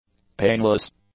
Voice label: "painless", English; adjectives

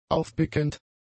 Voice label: "aufpickend", German; adjectives

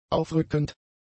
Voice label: "aufrückend", German; adjectives

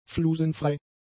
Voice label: "flusenfrei", German; adjectives

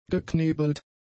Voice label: "geknebelt", German; adjectives

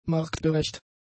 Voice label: "marktgerecht", German; adjectives

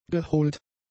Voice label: "geholt", German; adjectives

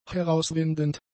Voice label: "herauswindend", German; adjectives

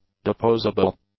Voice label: "deposable", english; adjectives

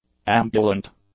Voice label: "ambulant", English; adjectives

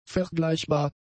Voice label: "vergleichbar", German; adjectives

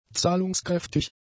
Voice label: "zahlungskräftig", German; adjectives

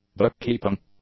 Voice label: "book-keeping", English; adjectives

